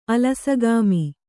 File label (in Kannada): "ಅಲಸಗಾಮಿ", Kannada